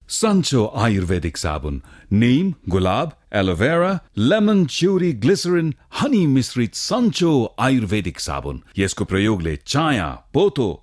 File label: Nepali